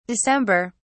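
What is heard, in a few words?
"december", English, British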